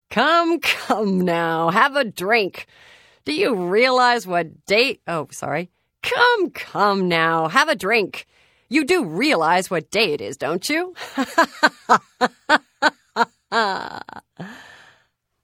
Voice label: Holiday Greeting Female; Unused